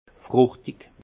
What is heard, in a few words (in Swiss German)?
"fruchtig", Alsace; fruité; vin